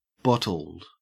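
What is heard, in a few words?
"bottled", Australian English; pronunciations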